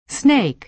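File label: "snake", American English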